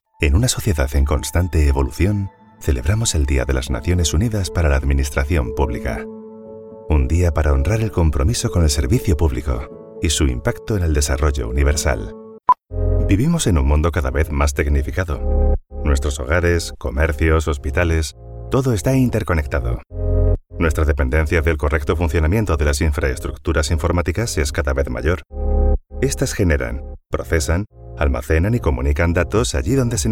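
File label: Corporate, Smooth and trustworthy Spanish speaker; Corporate Reel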